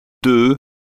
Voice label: number two french

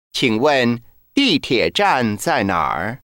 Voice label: where sub mandarin

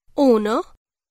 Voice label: number one spanish

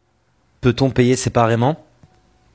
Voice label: can you split this bill french

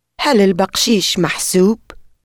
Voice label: tip included arabic

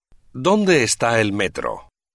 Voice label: where sub spanish

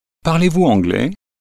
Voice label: speak english french

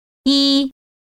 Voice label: number one mandarin

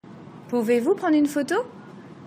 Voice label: Can You Take A Photo French

